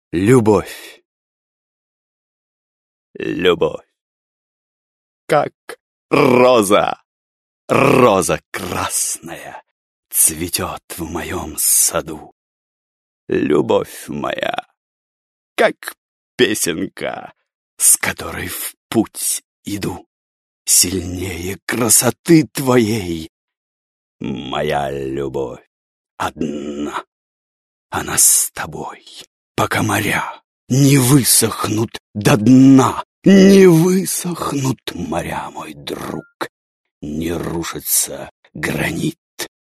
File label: russian male